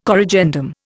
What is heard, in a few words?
"corrigendum", Indian